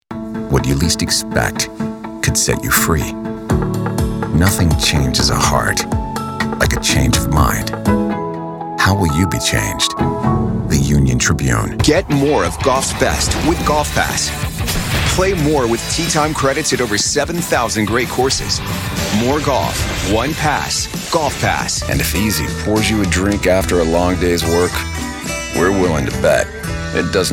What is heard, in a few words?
Commercial